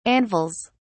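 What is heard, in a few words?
"anvils", British English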